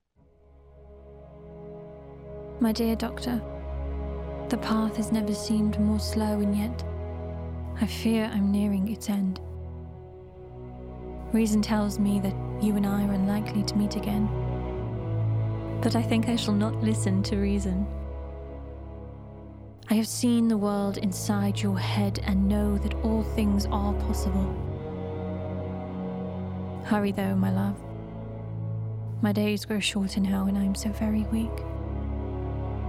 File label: Monologue RP